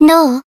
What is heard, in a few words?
attack jp